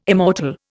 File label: "immortal", Indian